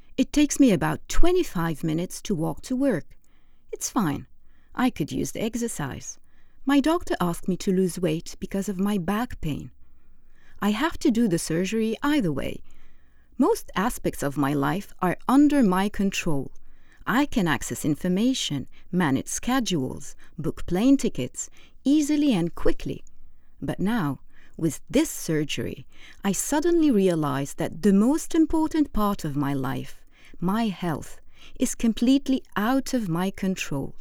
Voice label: English